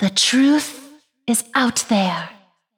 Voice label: voice, taunt; elven stargazers